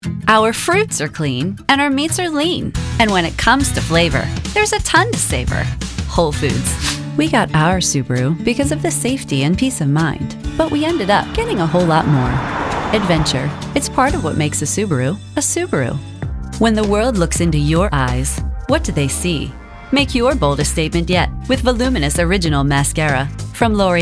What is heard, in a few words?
Commercial